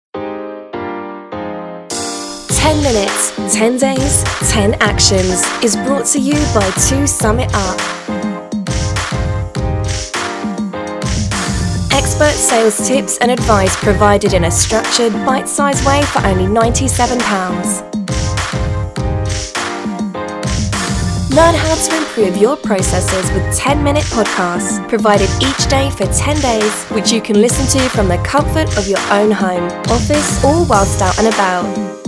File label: Advert